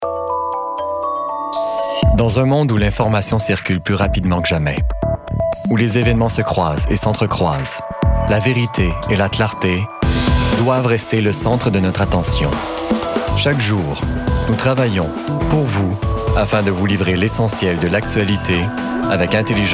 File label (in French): fr qc naturel annonceur determine serieux sobre adulte medium; le devoir